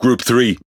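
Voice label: Speech Pilots Bomber, British English; World Of Warships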